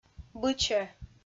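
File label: "бычӧ", Komi, female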